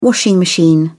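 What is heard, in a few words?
"washing machine", British English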